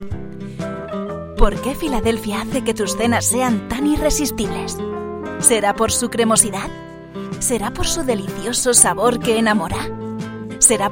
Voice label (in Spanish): demo voz; Philadelphia